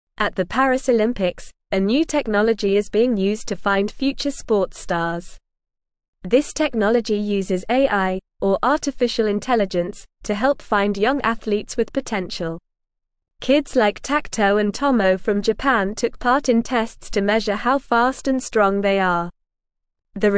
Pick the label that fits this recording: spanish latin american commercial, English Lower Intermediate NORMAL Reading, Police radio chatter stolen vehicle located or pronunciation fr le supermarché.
English Lower Intermediate NORMAL Reading